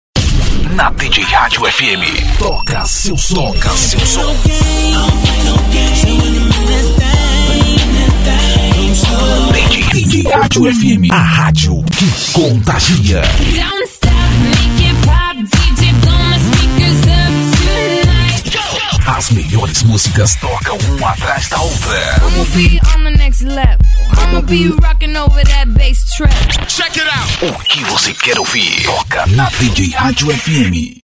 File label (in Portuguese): vinhetão dj radio; studiopowermix